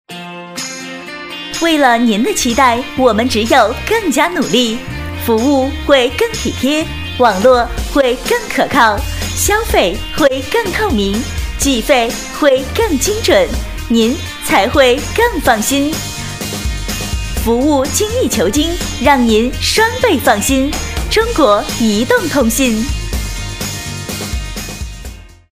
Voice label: 促销 女 活力轻快; 中国移动

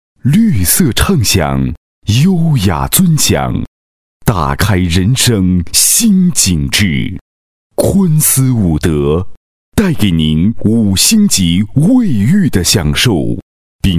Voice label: 广告 男 大气; 昆斯伍德

